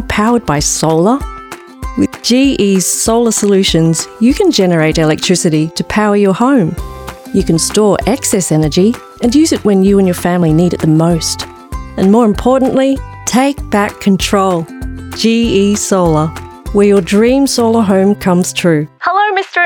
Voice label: Voice-Over